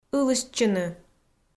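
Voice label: "ылыстчыны", Komi, female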